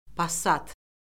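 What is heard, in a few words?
"passat", Azerbaijani; Orfoqrafiya Lüğəti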